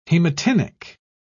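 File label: "hematinic", British English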